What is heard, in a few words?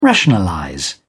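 "rationalize", British English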